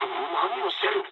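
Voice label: Japanese attack position mood med; War Thunder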